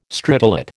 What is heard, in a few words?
"stridulate", American